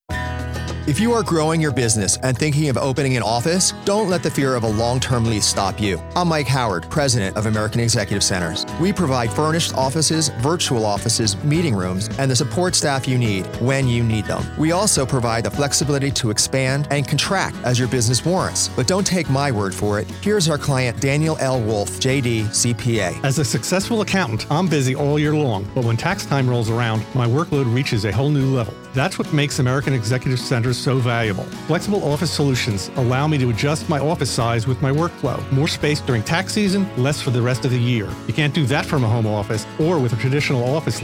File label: ad radio; American Exec